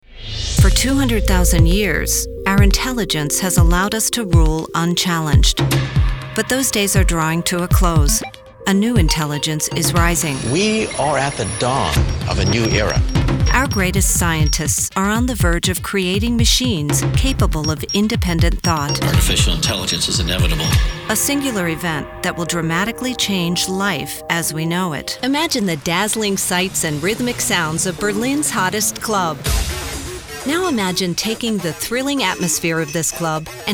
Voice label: narration, women